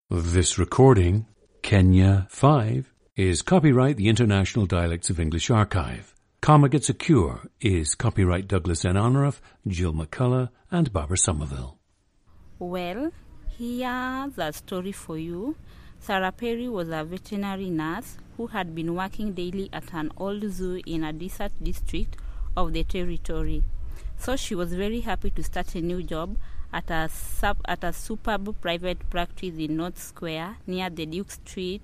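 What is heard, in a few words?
kenya, International Dialects of English; Kenya 5; a 24-year-old woman from Kenya.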